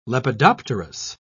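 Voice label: "lepidopterous", British English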